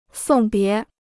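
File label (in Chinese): "送别" Chinese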